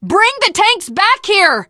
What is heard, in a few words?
teengirl, voice; Left 4 Dead 2; tank